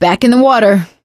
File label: bridge collapse, survivor voice; video game; source engine; left 4 dead 2; producer